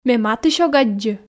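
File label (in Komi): ме матысь ог аддзы, Komi, female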